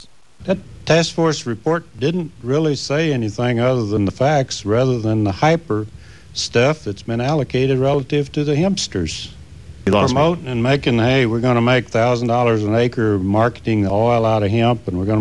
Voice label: Kentucky, dialect